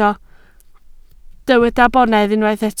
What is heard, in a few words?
"bonedd", Welsh